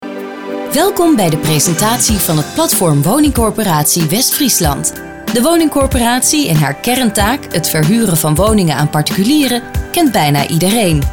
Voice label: Informative corporate video